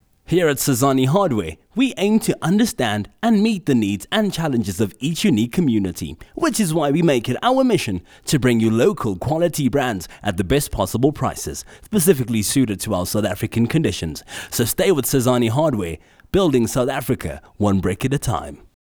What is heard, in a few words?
Soft Sell; Sizani Hardware